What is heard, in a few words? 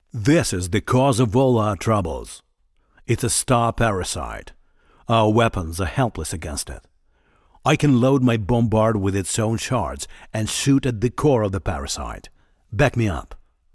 Voice Dialog; We Are the Dwarves